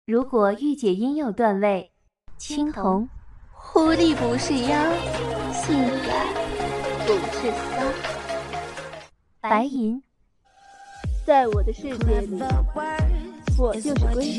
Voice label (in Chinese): 御姐音